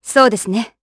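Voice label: Vox, voices, Japanese; Glenwys; kingsraid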